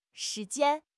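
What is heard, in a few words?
"shi2 jian1", Chinese; HSK; HSK2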